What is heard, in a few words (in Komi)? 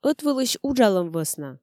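ӧтвылысь уджалӧм вӧсна, Komi, female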